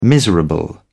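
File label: "miserable", British English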